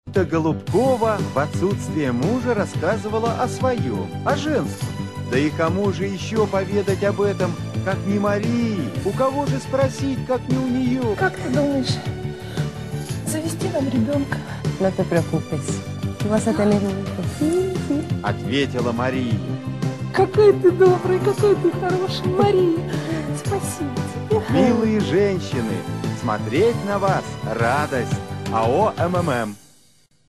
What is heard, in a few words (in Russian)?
Reklama; Reklama MMM